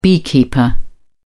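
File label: "beekeeper", English, British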